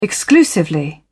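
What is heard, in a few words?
"exclusively", British English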